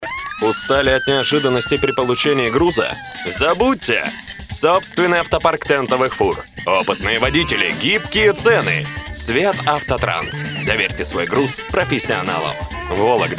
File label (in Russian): Reklamnoe